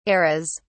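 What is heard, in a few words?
"eras", British English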